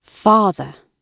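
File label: "father", British English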